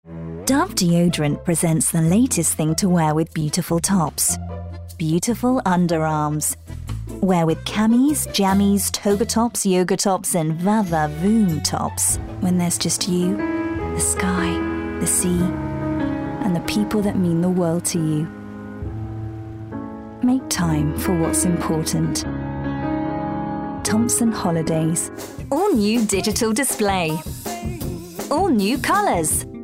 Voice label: Commercial